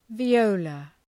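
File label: "viola" English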